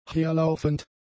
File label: "herlaufend", German; adjectives